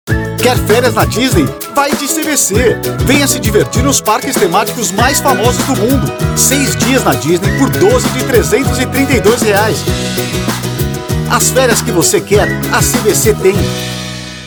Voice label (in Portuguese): Locução; CVC Disney